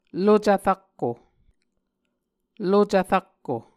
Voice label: "lucv rakko", Muscogee; Mvskoke Words with Repeated Consonants; Martin, Jack B., and Margaret McKane Mauldin. 2023. A Dictionary of Creek/Muskogee

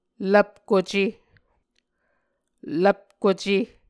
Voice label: "lvpkuce", Muscogee

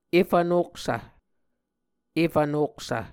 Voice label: "efv noksv", Muscogee

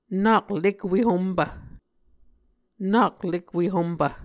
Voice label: "nak lekwe hompv", Muscogee

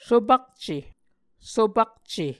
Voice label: "sopakce", Muscogee

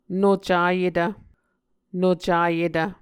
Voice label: "nocayetv", Muscogee

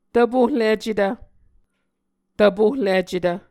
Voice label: "tvpohlicetv", Muscogee

